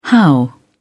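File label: "how", British English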